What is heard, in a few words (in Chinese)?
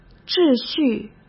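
"秩序", Mandarin; chinese-flashcards; hsk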